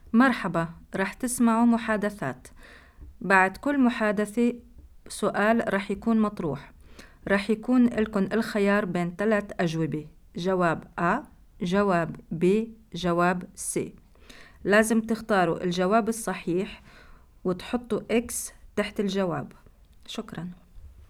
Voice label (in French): consignes arabe; Positionnement-FLE-Bruxelles